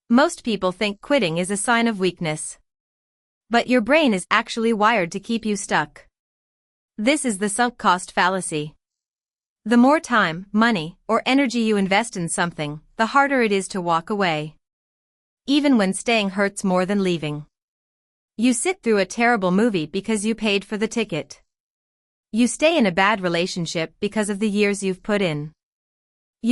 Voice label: voiceover